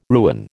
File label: "ruin", American